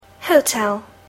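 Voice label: "hotel", English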